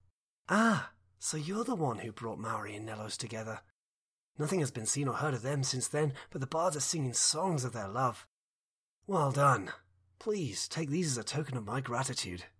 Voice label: breton, voice auditions